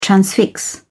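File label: "transfix", British English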